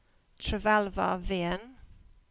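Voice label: "Alverton Vean", Cornish; place-names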